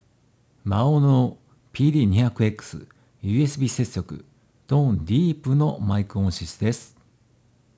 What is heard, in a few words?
maono pd200xs deep